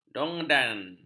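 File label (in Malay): "dondang", Malay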